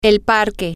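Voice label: female, spanish; the Park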